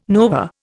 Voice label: "nova", Indian

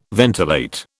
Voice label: "ventilate", British

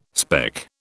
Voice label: "speck", British

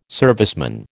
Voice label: "serviceman", American

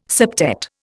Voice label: "septet", Indian

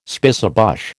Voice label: "spicebush", American

